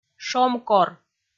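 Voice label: "шомкор", Komi, female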